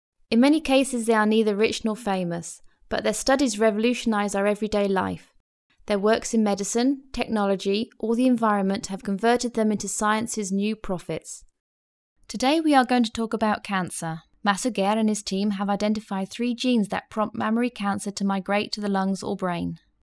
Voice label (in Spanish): locutora inglesa